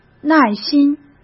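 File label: "耐心", Mandarin Chinese; chinese-flashcards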